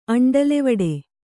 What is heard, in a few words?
"ಅಂಡಲೆವಡೆ", Kannada